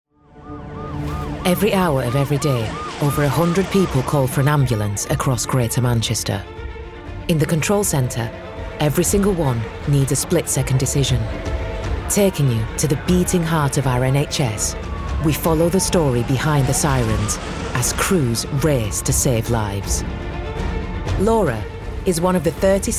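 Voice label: UK English, narrative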